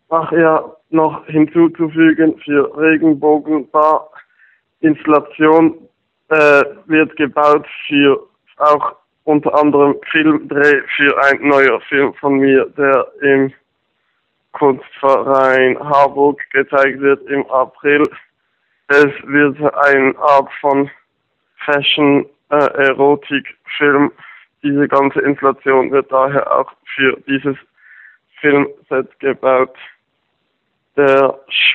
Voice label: Voicemail